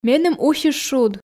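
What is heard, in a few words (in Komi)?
меным усис шуд, Komi, female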